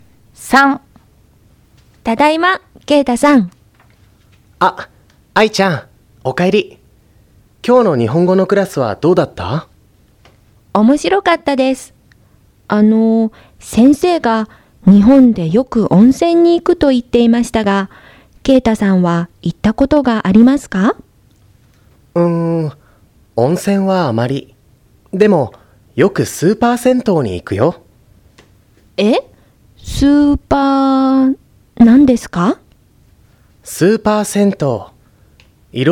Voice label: Conversation; tobira